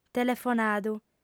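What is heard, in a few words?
"telefonadu", female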